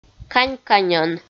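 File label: "кань-каньӧн", Komi, female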